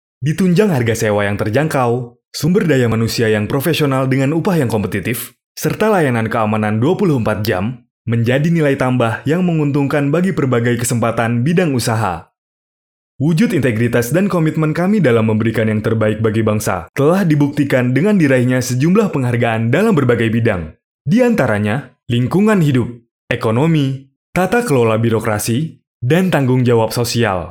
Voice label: INDO FORMAL